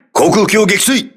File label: voice message aircraft destroyed, crew ships japanese